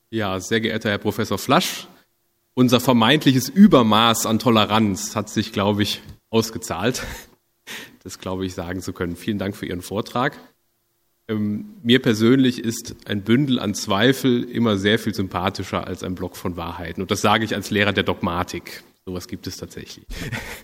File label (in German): anmoderation sprecher